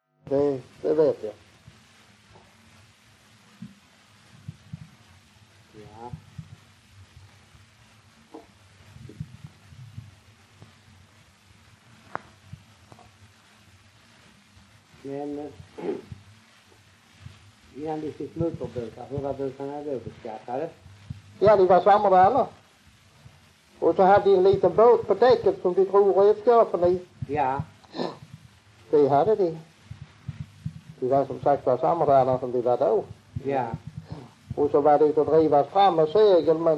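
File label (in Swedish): Raus Skåne, dialekter svenska sagor; Folkminnen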